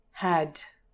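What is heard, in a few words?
"had", English, TRAP /æ/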